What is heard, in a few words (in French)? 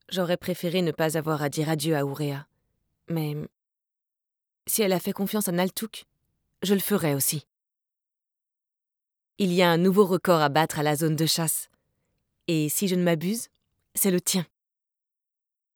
dialogue adulte